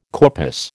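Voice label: "corpus", American English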